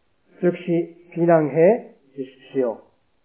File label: korean